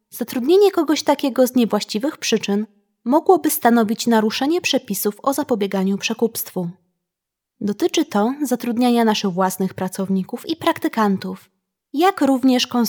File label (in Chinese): 波兰语女, 外语; 小语种